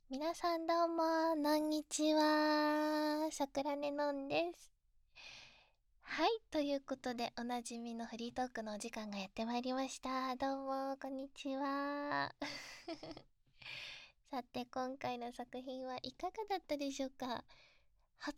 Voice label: まったりフリートーク

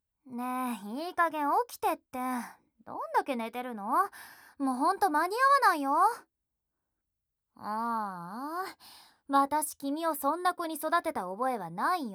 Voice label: 目覚ましボイス; 負けヒロインの白ギャルちゃん～頼りになる後輩くんと二人だけの秘密～

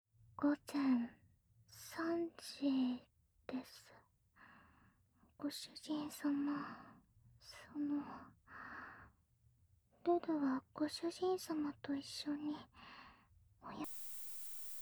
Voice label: 時報ボイス 午前3時です

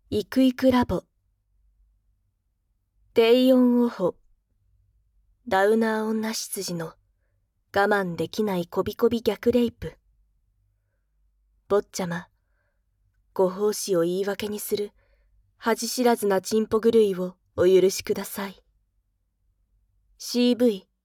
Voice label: タイトルコール, ASMR

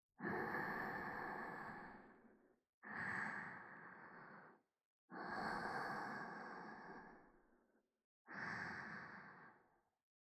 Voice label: 添い寝ループ, ASMR; 聖女のお仕事～ダウナー不思議系な聖女ルチアとあまらぶ子作りライフ～

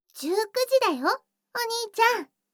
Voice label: 時報19時; 全肯定ロリママのあまあまでえっちな添い寝; いもおかASMR

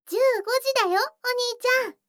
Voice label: 時報15時, ASMR

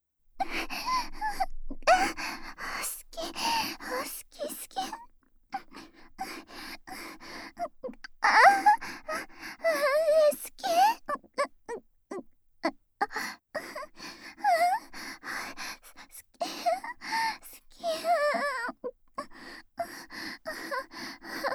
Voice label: 密着好き好き連呼エッチループトラック, ASMR

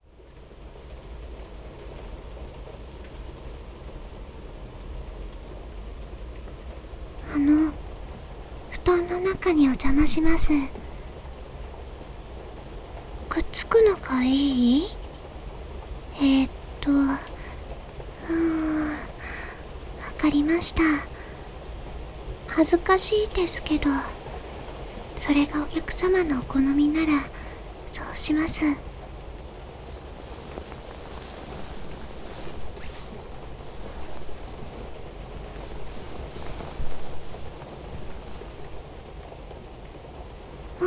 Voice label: 添い寝 雨の森の話（右側）; しずく

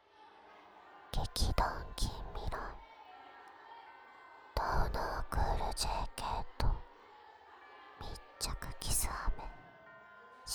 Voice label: タイトルコール

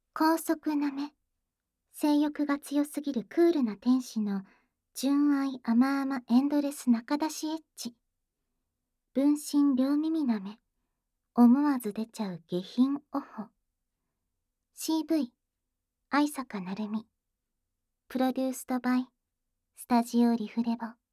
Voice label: タイトルコール

